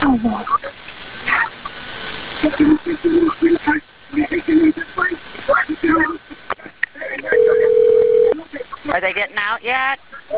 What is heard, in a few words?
Call to 911 dispatcher after ride malfunction; Cedar Point